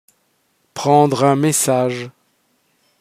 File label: prendre un message, pronunciation fr